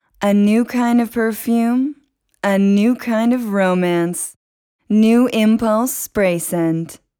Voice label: Bajada, Ingles (USA)